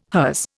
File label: "hers", Indian